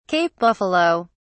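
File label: "cape buffalo", British English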